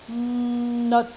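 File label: "neot6", Cantonese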